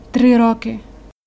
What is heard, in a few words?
dialogue, Ukrainian